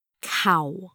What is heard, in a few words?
"kau4", cantonese